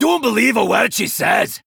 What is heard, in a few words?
Male Dwarf; Tavish